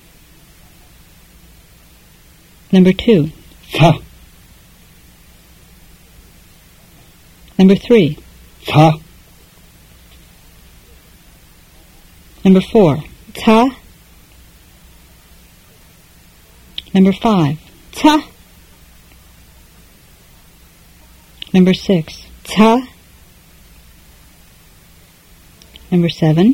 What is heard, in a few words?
Fluent Tibetan drill